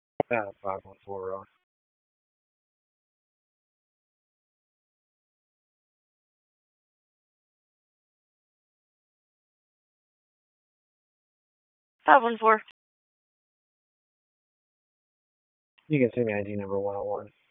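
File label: Raleigh Police Southeast District Dispatch, p25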